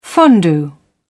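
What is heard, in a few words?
"fondue", British English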